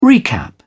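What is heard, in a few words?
"recap", British English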